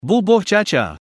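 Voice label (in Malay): "bubur cha cha", Malay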